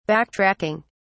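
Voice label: "backtracking", British English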